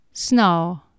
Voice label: "snaw", Anglo Saxon

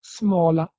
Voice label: "smala", Proto Germanic

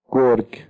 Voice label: "gorg", Persian

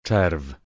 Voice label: "czerw", Polish

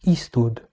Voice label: "istud", Latin

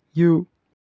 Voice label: "yu", Ossetian

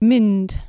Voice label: "mynd", Anglo Saxon

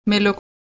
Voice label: "meluk", Proto-Germanic

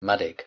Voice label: "maddock", Middle English

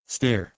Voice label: "steor", Anglo Saxon

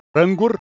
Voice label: "aengur", Ossetian

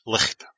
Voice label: "licht", Scots

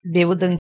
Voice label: "beudan", Proto-Germanic

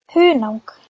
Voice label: "hunang", Proto Germanic

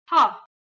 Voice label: "hav", Armenian

